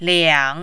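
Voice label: "liang", Mandarin, third tone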